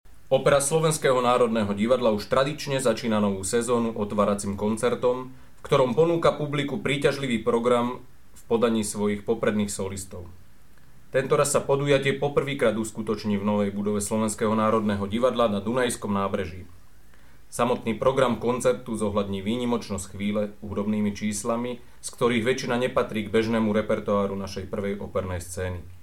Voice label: Slowakei M

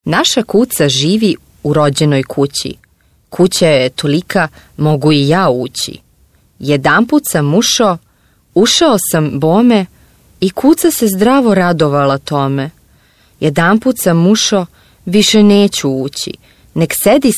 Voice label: Serbien W

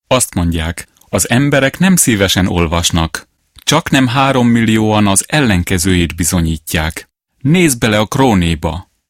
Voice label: Ungarn M

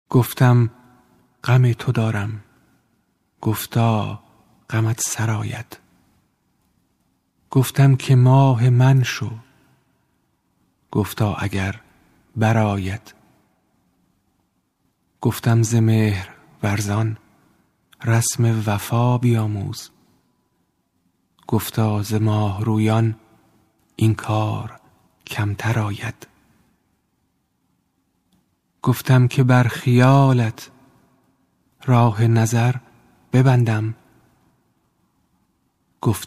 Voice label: Iran M

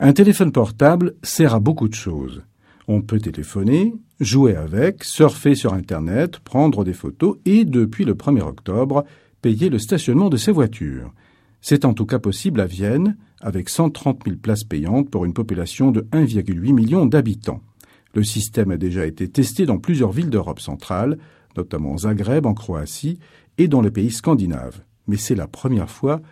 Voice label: Frankreich M3, voiceactors

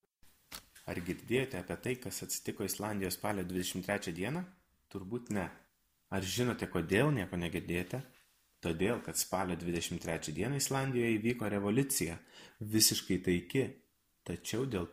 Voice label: Litauen M

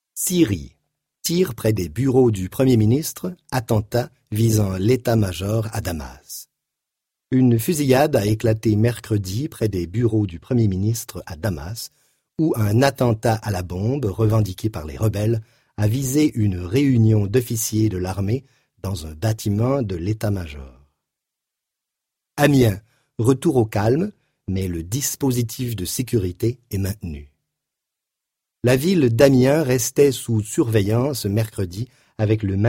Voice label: Kanada M

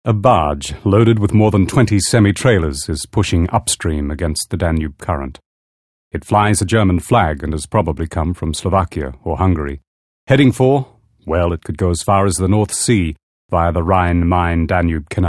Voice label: Australien M

